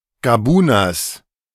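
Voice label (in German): "Gabuners", German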